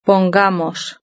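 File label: "pongamos", Spanish; verb-conjugator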